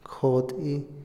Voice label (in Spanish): rastrillo, Otomí, hombre; INALI